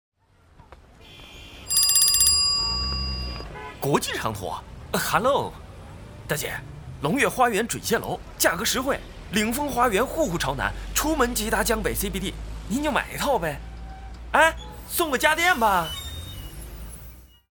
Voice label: 搞笑电话对话广告

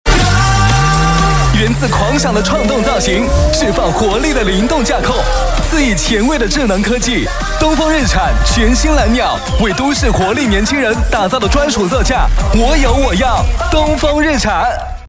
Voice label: 年轻活力男声; 东风日产蓝鸟

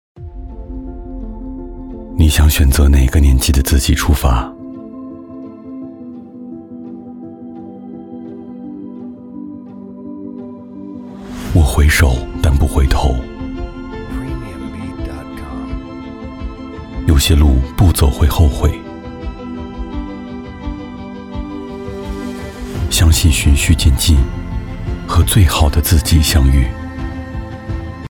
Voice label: 中文走心; 向往

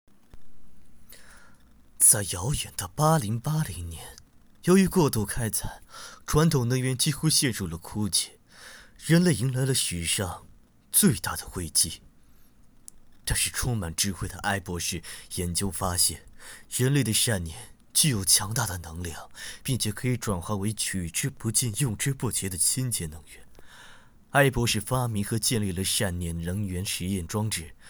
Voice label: 旁白试音

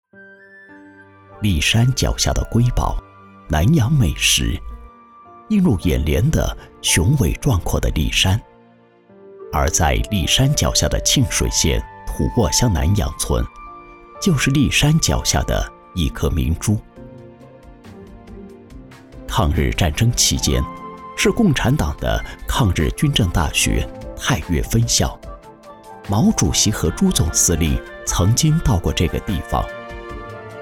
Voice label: 美食纪录片舌尖体

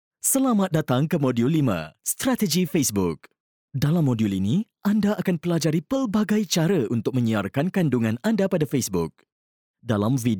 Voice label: 外籍马莱语男磁性浑厚

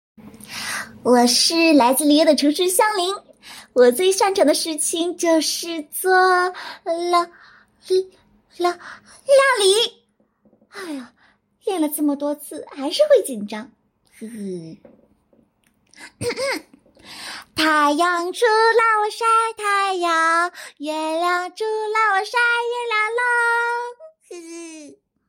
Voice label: 元气少女音

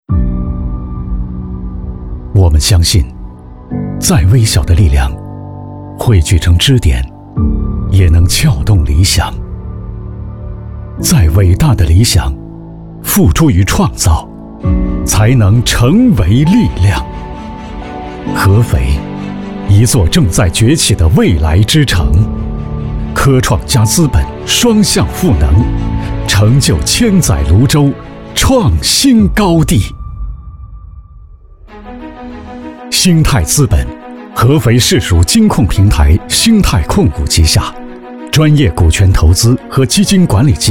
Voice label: 自信坚定; 兴泰资本

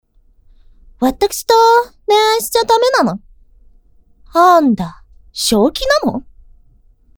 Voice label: 傲娇少女

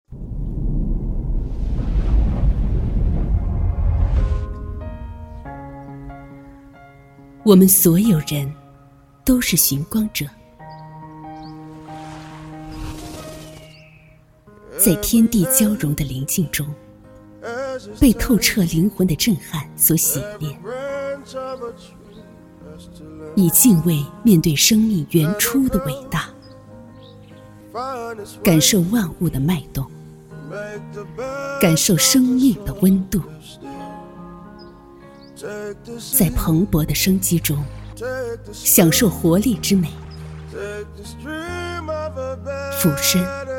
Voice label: 翡翠广告

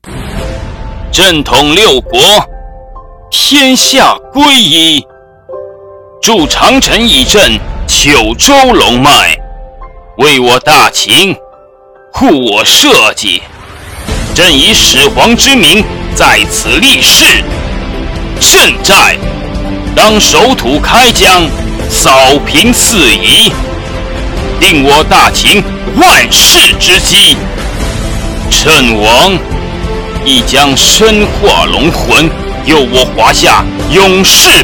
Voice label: 霸气古文配音; 秦始皇

